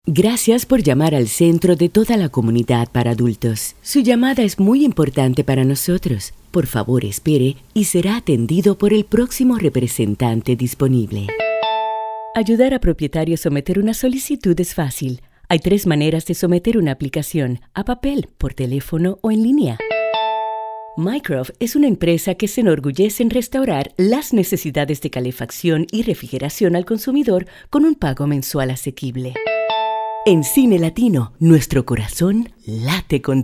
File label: Voice Over